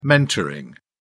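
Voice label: "mentoring", British English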